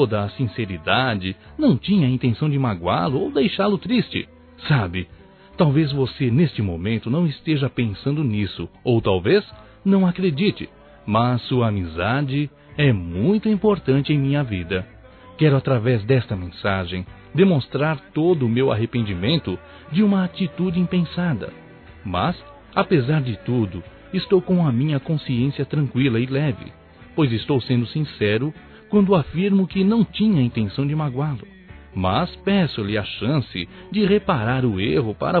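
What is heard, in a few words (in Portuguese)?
AMIGO masculino, Telemensagens; Desculpas; Telemensagens 2017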